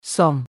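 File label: "សម", Khmer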